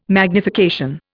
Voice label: "magnification", Indian